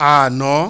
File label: "ana", Yoruba, low high tone; Nine Possible Combinations; Initial Low tone combinations